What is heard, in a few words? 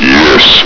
"yes", Combine soldier; hl2